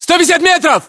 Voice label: threat distance, ru